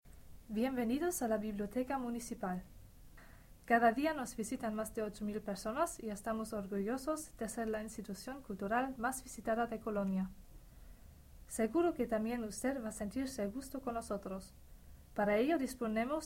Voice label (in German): spanisch laut